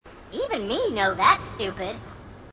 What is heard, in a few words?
grunt dopey; h2dialogue